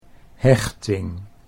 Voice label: "hechting", Dutch

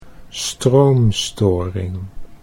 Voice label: "stroomstoring", Dutch

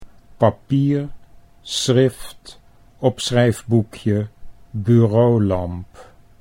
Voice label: "papier schrift opschrijfboekje", Dutch

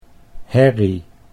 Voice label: "herrie", Dutch

